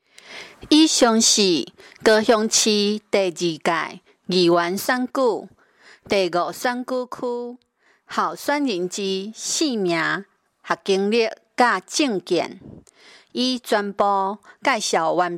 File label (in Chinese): 台語; 第五選區